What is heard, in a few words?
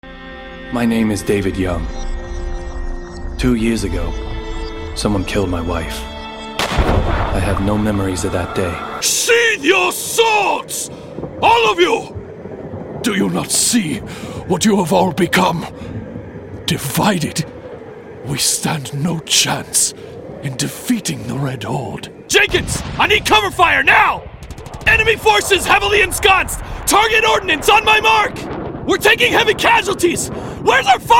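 animation men interactive, voices men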